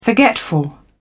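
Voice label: "forgetful", British English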